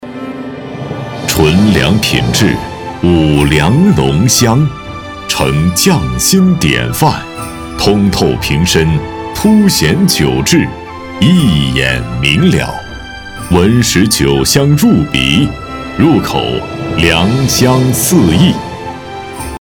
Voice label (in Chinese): 广告韵味酒广